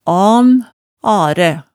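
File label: "an, aræ", Hemsedal dialect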